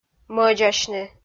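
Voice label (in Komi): "мӧдзасьны", Komi, female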